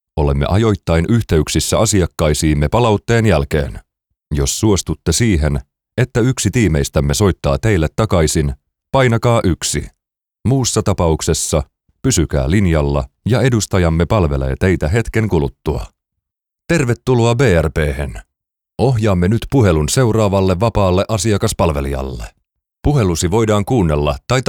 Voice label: finnish ivr